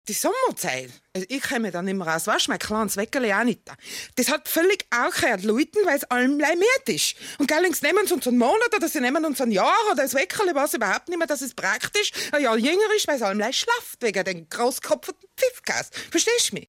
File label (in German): dialekt